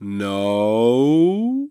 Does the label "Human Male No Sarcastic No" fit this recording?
Yes